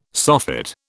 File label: "soffit", British